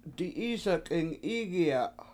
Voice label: "di7Isak7eng 7IIgiya7" She Just Saw My Older Sister, Washo